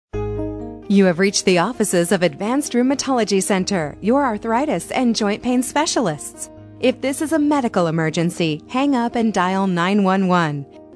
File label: auto attendant